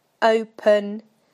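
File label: "open", British English; PictureDictionary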